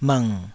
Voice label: "bhng3", taiwanese syllables; 台語音節發音檔案